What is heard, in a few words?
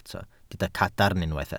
"cadarn", Welsh